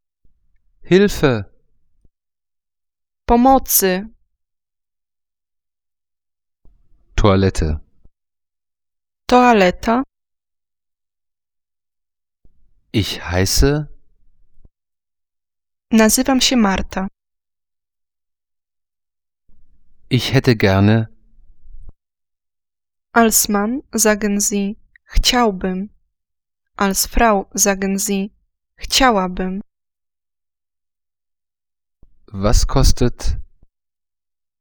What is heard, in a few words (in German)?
polnisch